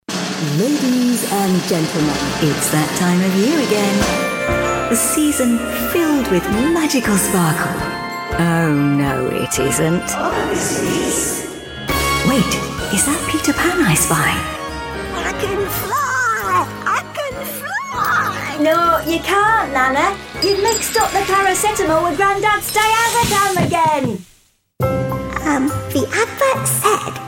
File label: character christmas